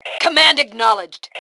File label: Command Acknowledged, Female